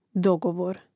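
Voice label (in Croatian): "dogovor", Hrvatski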